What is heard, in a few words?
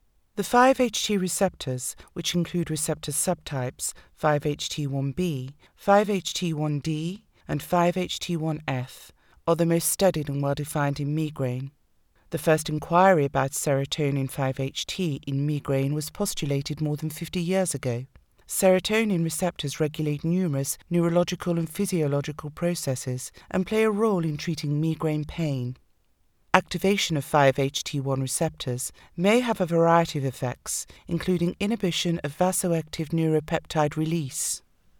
Medical Narration Formal